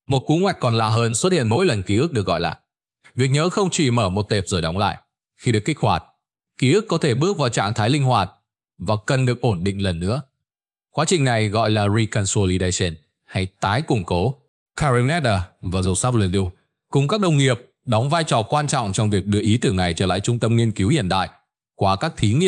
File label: narration